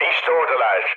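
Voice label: Turkish enemy detected, mood low, speech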